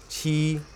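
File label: "Qi", seven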